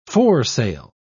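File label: "foresail", British English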